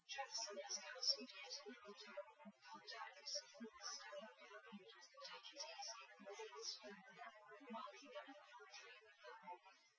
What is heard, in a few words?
female liverec 250ms 5cm; SiSEC08; SiSEC underdetermined